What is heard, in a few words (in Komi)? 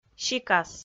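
"сикас", Komi, female; kpv audio dict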